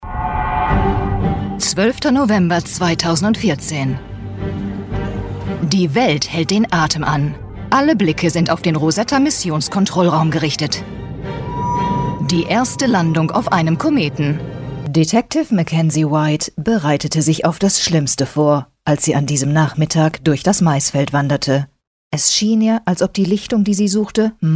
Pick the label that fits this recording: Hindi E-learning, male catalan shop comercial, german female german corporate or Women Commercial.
german female german corporate